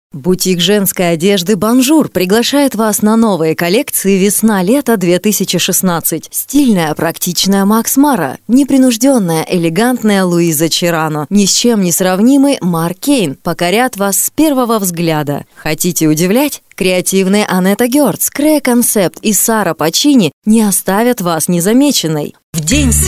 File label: woman sounds